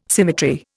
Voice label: "symmetry", Indian

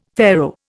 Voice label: "Pharaoh", Indian; Dictionary

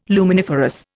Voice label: "luminiferous", Indian

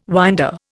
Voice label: "winder", Indian

